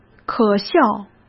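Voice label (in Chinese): "可笑", Mandarin Chinese; chinese flashcards; hsk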